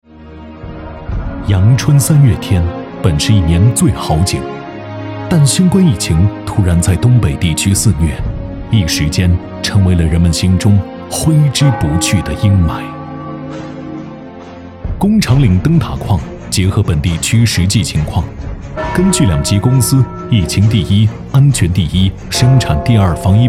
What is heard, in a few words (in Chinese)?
男 专题 解说; 零距离的432小时